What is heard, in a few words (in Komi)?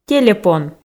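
"телепон", female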